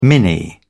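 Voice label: "mini", British English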